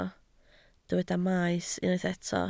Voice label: "maes", Welsh

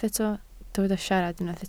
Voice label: "siarad", Welsh

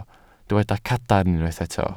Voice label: "cadarn", Welsh

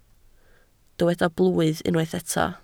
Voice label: "blwydd", Welsh

